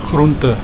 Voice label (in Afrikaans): "groente", Afrikaans